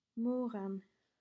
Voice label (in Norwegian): bergensk; moren